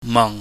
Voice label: "mang", pingyam